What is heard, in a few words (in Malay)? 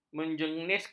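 "menjeniskan", Malay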